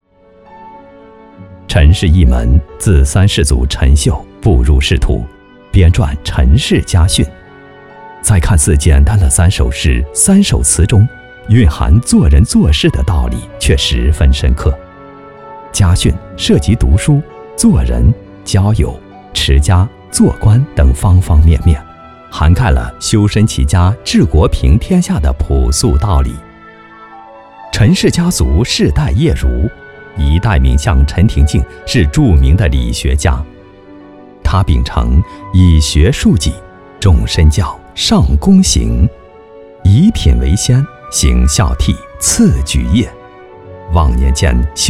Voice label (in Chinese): 男 专题 温暖讲述; 大清相国陈廷敬